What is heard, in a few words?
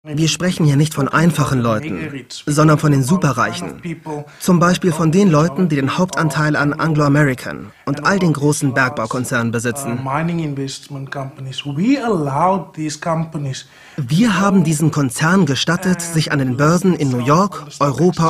voice over doku